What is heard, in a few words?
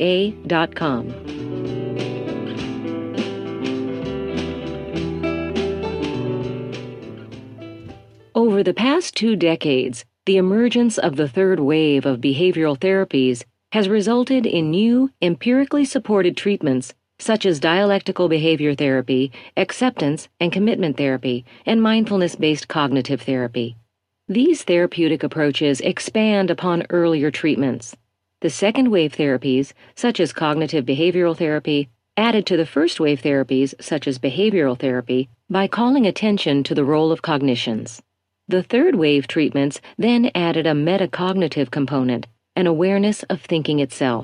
women audiobooks